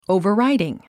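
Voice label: "overriding", English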